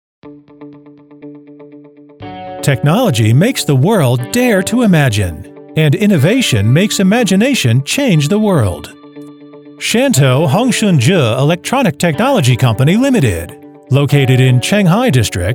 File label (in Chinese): 外籍 企业宣传; 鸿讯企业宣传